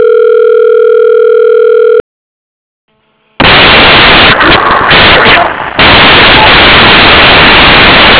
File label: chan mobile distorted